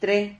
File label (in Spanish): "tre", Silabas